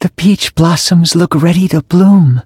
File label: touch, en; cookierun